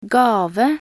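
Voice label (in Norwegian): "gave", Norwegian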